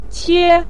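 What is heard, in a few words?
"qie", Mandarin Chinese neutral tone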